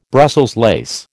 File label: "Brussels lace", American